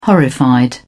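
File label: "horrified", British English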